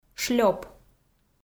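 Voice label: "шлёп", Komi, female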